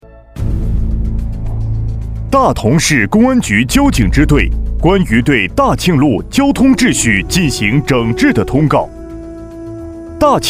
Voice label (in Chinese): 男, 专题; 大同市公安局